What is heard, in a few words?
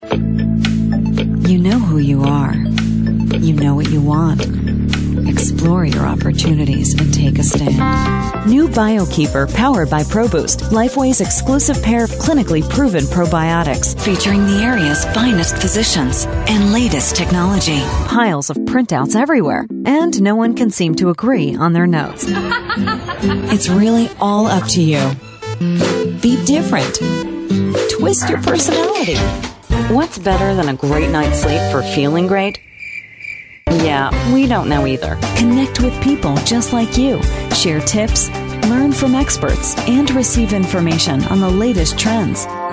Voice Talent Commercial; Commercial Demo